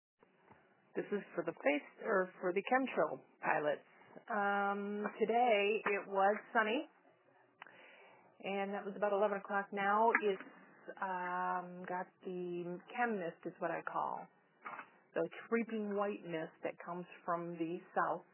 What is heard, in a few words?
voice message